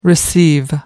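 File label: "receive", English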